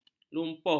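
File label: "lumpuh", Malay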